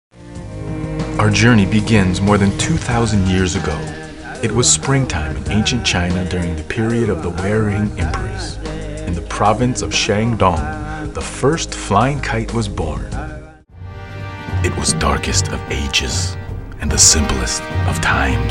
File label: Narration Male